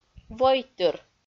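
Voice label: "войтыр", Komi, female; audio dict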